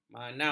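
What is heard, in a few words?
"manau", Malay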